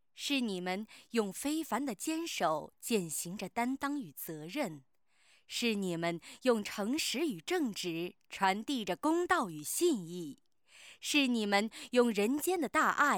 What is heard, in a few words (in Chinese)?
女 解说旁白 颁奖词